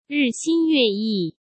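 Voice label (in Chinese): "日新月异", Mandarin Chinese; hsk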